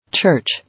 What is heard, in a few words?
"Church", English